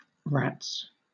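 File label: "rats", British English; pronunciations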